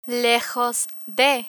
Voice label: "lejos de", Spanish

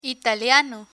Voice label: "italiano", Spanish

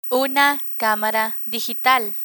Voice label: una camara digital, Spanish; picture dictionary; learn spanish

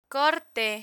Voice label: "corte", Spanish; rules gender termination; learn spanish

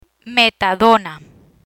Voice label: "Metadona", Spanish

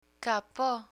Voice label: "capo", Spanish; picture dictionary; learn spanish

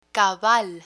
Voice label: "cabal", Spanish

